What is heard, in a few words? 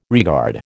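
"regard", American English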